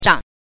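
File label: "zhang", Mandarin Chinese syllable, neutral tone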